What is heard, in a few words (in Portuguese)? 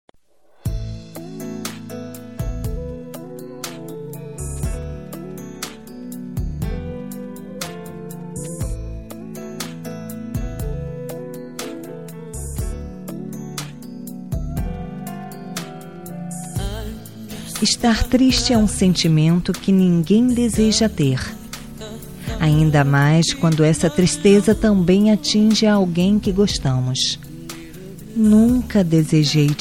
Amizade Com Pedido de Perdão F